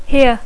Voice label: "here", English; adverbi; aquí; (Val.) ací

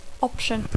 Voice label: "option", English

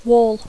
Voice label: "wall", English

